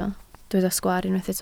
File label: "sgwaar", Welsh